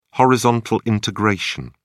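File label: "horizontal integration", British English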